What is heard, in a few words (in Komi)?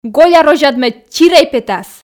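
"голя розяд мед чирей петас", Komi, female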